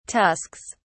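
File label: "tusks", British English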